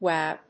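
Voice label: "wow", English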